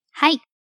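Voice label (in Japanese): "はい", Japanese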